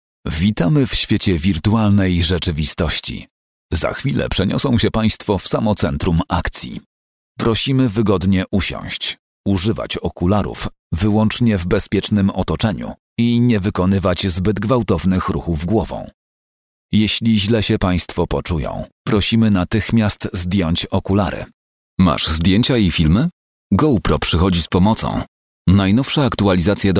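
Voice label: Polish narration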